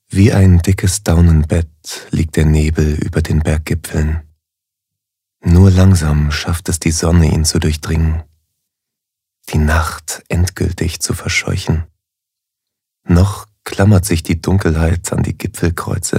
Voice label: Schauspiel Sanft

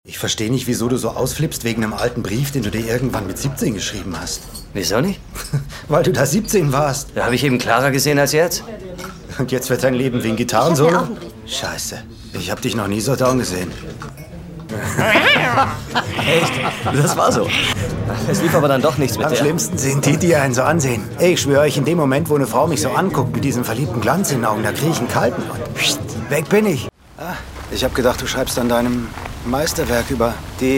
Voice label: Schauspiel Dramatisch

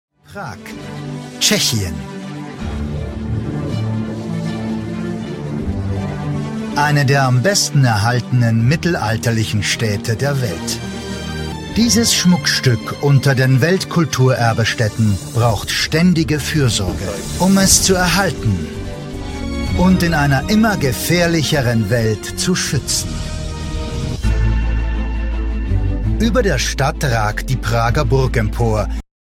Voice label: Fremdtake Freundlich

